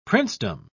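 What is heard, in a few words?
"princedom", British English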